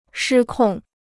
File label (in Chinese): "失控", Chinese